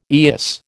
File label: "eyas", American